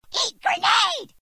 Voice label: eat grenade, grunts dialogue